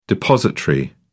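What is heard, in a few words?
"depositary", English, British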